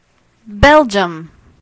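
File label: belgium, Spanish; gcompris-data; geography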